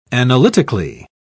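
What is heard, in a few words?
"analytically", British English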